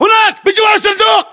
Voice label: landmark near crate, battle chatter, english